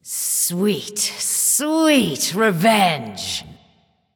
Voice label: taunt directed; athena; smite; medusa